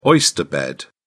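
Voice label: "oyster bed", British English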